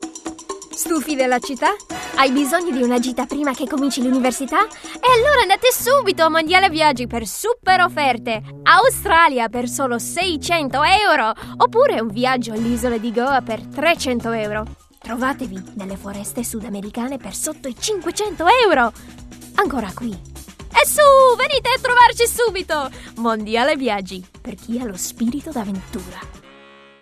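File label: Italian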